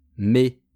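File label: "mes", French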